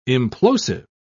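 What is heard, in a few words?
"implosive", British English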